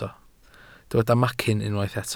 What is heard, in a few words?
"macyn", welsh